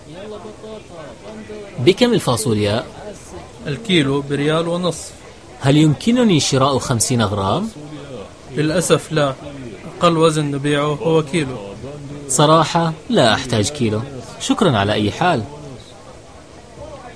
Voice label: dialog